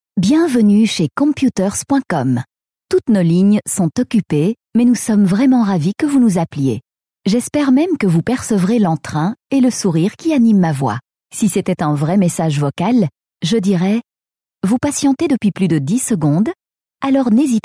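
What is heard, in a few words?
French, female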